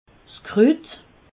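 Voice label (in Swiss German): "krut", Haut Rhin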